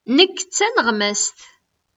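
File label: "Nekk d taneɣmast.", Kabyle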